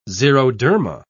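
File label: "xeroderma", British English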